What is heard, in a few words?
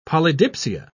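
"polydipsia", British English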